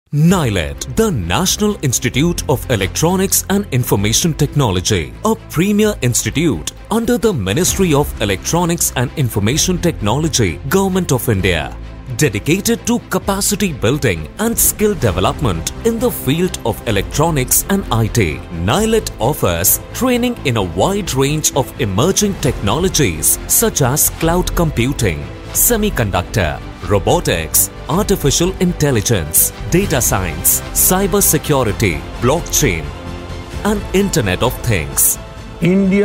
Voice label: Documentary English

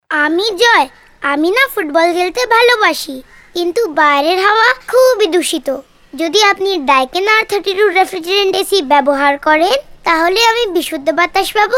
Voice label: child, ad, bengali

